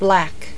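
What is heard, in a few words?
"BLACK", English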